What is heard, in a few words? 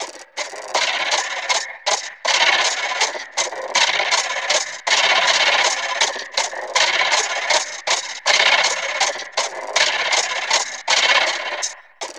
VOC, VOCODA LOOPS; Zero G; Malice In Wonderland